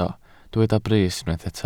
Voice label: "brys", Welsh